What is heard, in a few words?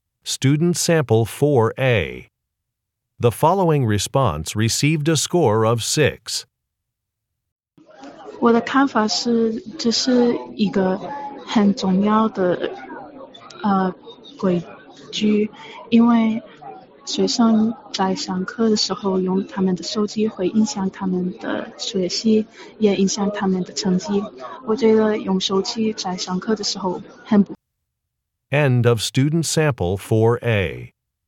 chinese conversation